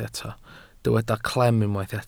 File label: "clem", Welsh